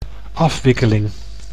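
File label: "afwikkeling", Dutch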